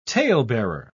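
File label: "talebearer", British English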